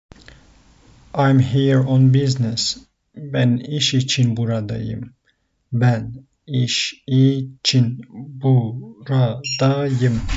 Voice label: I am here on business, turkish; the talking turkish phrasebook